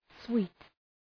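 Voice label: "sweet", English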